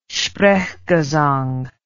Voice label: "sprechgesang", English